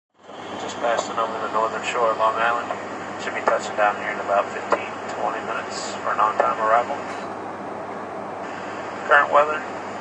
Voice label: Pilot Announce, Nearing landing, pilot announces speaks to passengers; Nearing landing, pilot announces speaks to passengers announcing weather, location, and asks the; Pilot Announcement During Flight; Airplane